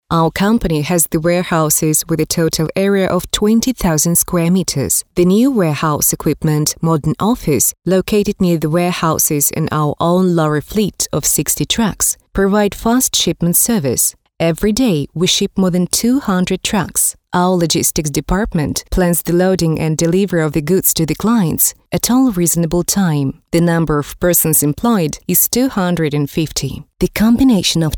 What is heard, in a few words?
english